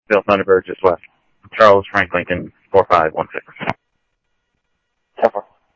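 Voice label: police department, scanner audio trunk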